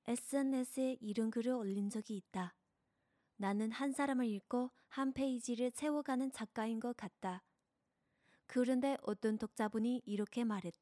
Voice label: 韩语 小语种

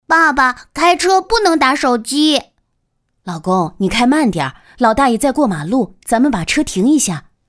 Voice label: 交通提示角色小孩母亲

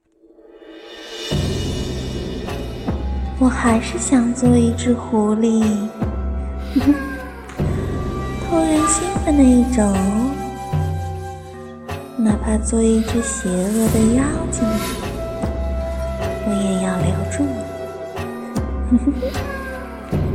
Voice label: 试音少御音

